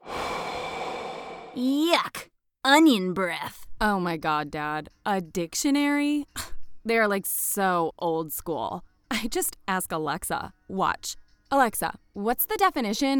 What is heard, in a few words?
ANIMATION